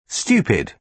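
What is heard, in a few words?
"stupid", British English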